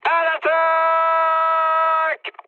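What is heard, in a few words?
enemy detected, mood high, French; War Thunder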